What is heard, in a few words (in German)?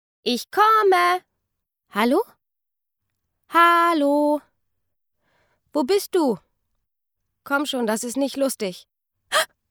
Kind Game Hoerspiel